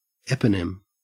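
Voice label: "eponym", Australian English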